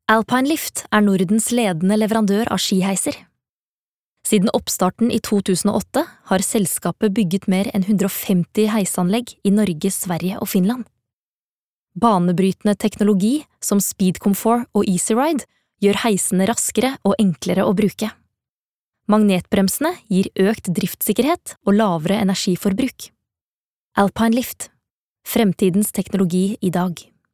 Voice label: no corporate, norsk, kvinne